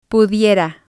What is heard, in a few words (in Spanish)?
"pudiera", spanish